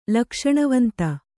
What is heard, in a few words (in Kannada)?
"ಲಕ್ಷಣವಂತ", kannada